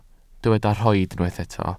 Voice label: "rhoid", welsh